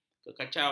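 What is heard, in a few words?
"kekacauan", Malay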